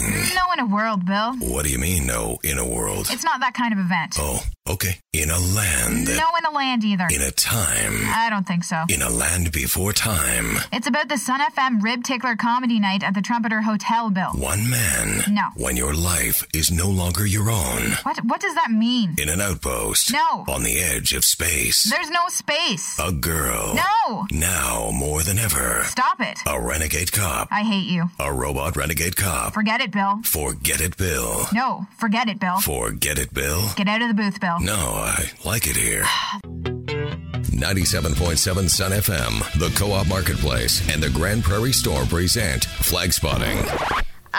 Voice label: Promo Voice

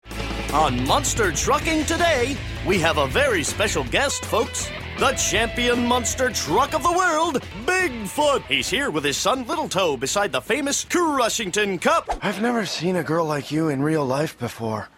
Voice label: Animation Voice

